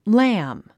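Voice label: "lamb", English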